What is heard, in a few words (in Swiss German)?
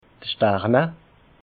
"starne", alsace